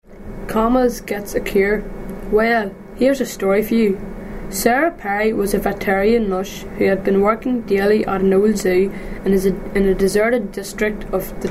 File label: passage, dialects ni